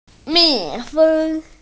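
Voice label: "bee", Mandarin; mi4feng1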